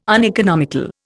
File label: "uneconomical", Indian